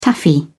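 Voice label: "taffy", British English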